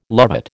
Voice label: "lovat", American